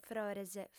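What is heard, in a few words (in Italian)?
"frores", lingua sarda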